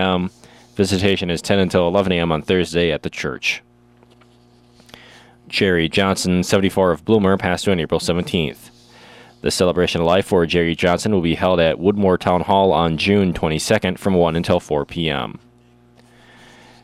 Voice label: Funeral Announcements; Tuesday